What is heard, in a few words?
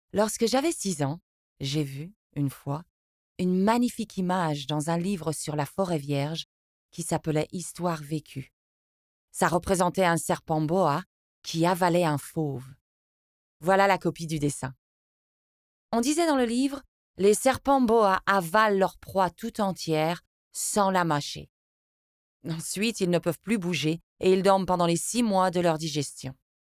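French, France, female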